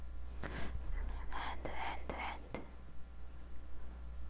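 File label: "hold", spoken word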